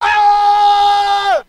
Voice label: "ow" pain